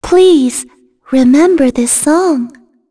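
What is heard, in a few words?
Vox Victory, voices heroes English; Shea; kings raid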